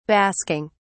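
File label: "basking", British English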